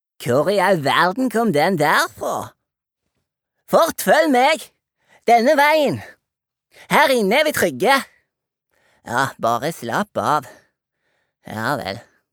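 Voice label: dub, Norwegian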